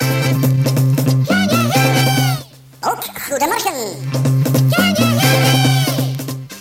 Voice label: Jingle can you hear it; Ook Goedemorgen